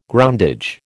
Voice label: "groundage", American

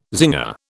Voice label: "zinger", British

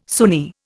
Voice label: "Sunni", Indian

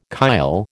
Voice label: "chyle", American

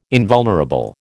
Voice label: "invulnerable", American

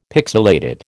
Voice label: "pixilated", American

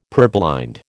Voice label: "purblind", American

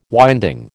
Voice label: "winding", American

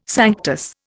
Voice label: "sanctus", Indian

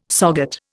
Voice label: "SOGAT", Indian

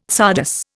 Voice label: "csardas", Indian

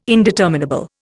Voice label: "indeterminable", Indian

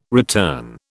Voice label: "return", British

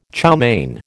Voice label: "chow mein", American

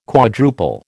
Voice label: "quadruple", American

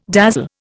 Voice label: "dazzle", Indian

